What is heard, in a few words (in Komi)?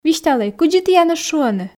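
висьталӧй кыдзи тіянӧс шуӧны, Komi, female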